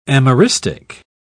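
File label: "ameristic", English, British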